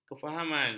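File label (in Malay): "kefahaman", Malay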